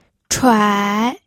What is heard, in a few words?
"chuai3", pinyin